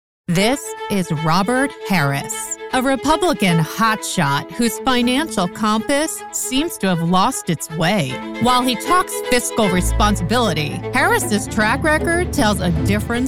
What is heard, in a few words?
Republican Attack Ad VO